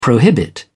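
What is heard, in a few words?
"prohibit", British English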